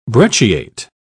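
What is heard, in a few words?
"brecciate", British English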